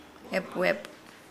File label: Nukuoro; bubbles